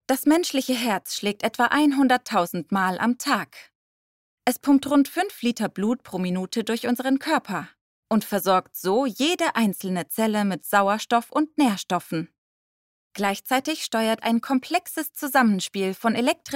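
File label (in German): E Learning Clean Voice, Sprecherin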